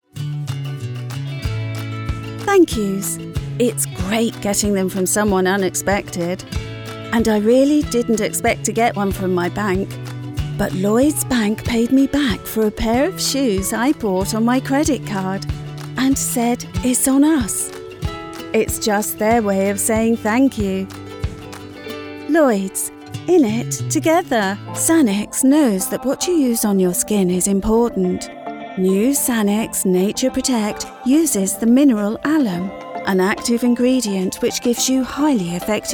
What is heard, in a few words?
Commercial